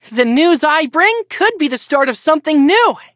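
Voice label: level up, English; Cookie Run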